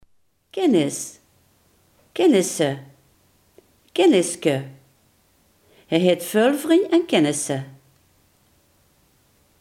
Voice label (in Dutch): "kennis", Limburgs